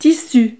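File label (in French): "tissu", French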